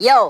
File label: "yo", cartoon